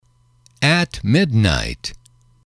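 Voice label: "midnight", English, male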